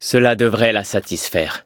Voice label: levelup fr